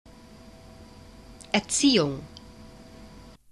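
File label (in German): "erziehung", German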